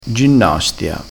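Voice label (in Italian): "ginnastia", vocabolario dialettale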